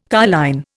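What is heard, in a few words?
"carline", Indian English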